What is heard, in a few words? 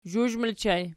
"zhuzh", Archi